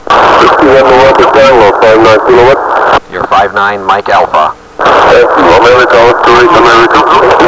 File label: 160m ssb; canary islands; 2009 arrl ssb